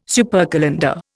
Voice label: "supercalender", Indian